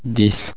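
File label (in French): "dix", French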